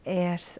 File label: "earth", Scottish